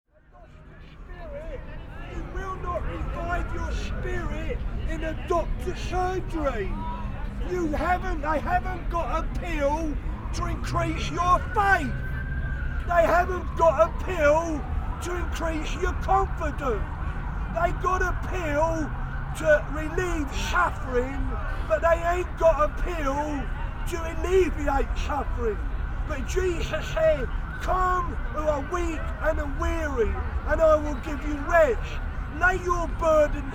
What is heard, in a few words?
Speakers Corner preacher